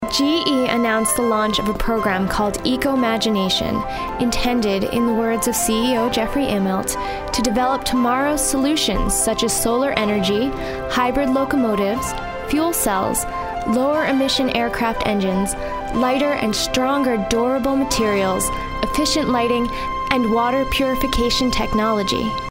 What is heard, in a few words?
Narration; GE